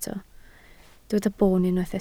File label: "boon", Welsh